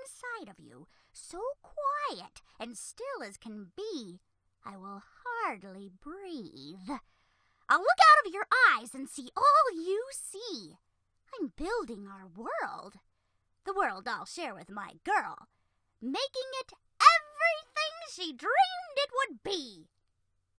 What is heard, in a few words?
Lil Green Alien VO